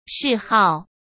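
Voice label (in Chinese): "嗜好", Mandarin; chinese flashcards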